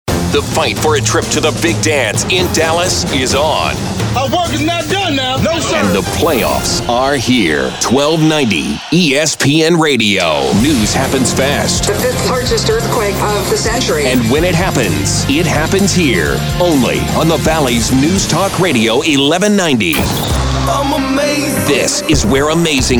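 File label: voice over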